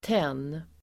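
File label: "tenn", Swedish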